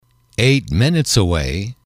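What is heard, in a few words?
eight Minutes Away, english, male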